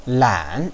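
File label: "lan", Mandarin Chinese, third tone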